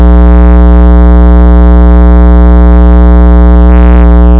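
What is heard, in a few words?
"lick", spoken word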